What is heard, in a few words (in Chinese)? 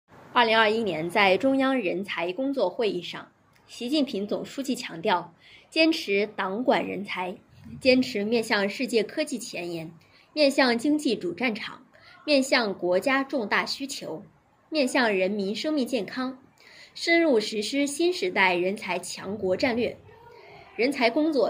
新闻试音